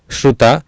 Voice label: "Sruta", Sanskrit